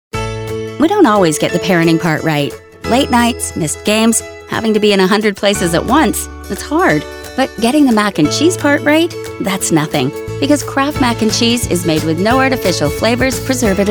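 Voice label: corporativo, American English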